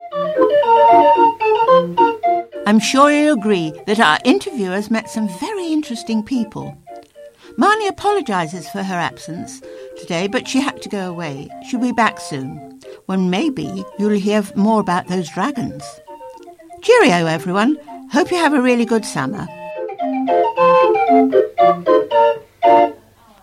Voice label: Closing Announcement